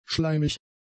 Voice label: "schleimig", German; adjectives